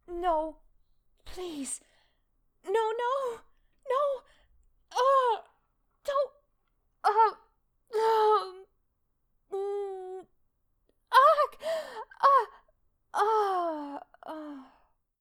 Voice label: No please please ack no no British